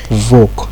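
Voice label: "voke", Nigerian Pidgin, male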